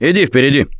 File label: Lead On, russian; Gambler